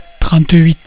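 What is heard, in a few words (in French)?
"trente huit", French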